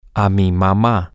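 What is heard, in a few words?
mom, Spanish, male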